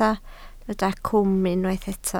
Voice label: "cwm", Welsh